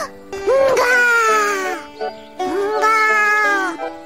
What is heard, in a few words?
cute anime roar